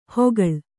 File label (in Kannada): "ಹೊಗಳ್", kannada